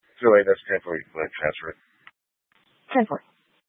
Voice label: PD Dispatch, scanner; Paradise Valley PD; B- Phx Fire and Public Works